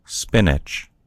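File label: "spinach", American English; gcompris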